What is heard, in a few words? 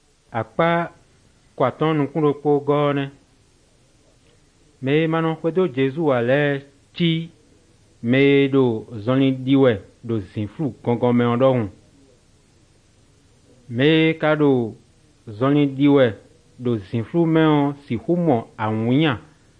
good news, fon fongbe bible drama